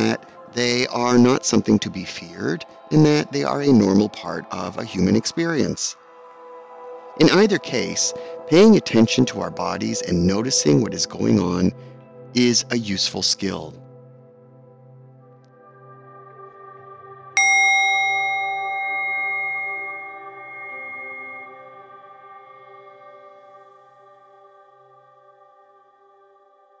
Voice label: Traditional Body Scan Meditation